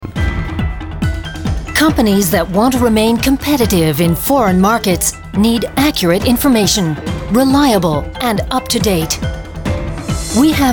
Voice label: image film